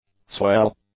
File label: "swell", english; nouns